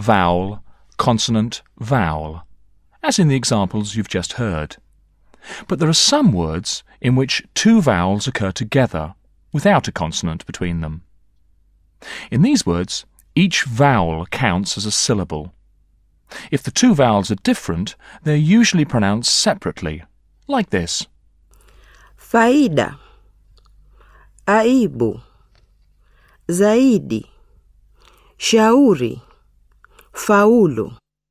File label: Swahili Pronunciation Guide; Teach yourself Swahili audio